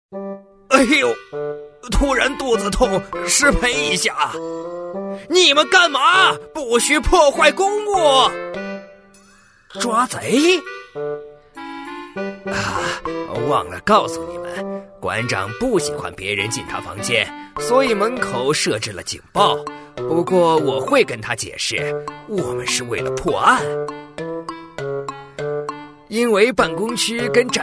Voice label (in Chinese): 男 角色 2人对话; 年轻多变 素人自然; 男WX-角色-2人对话